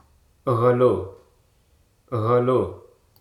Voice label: "late", Hruso